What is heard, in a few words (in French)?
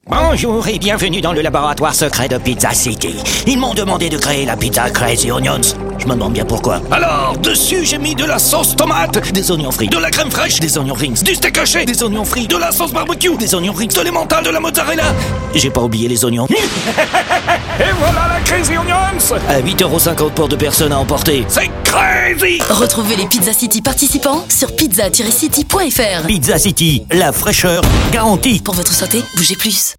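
spot, spots radio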